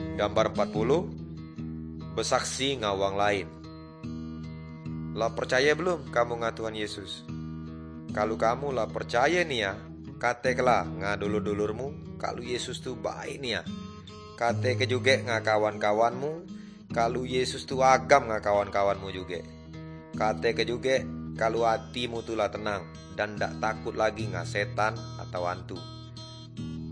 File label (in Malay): good news melayu tanjung raja; malay